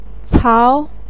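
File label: "cao2", Mandarin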